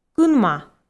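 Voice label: "кынма", Komi, female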